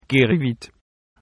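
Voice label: get well soon, French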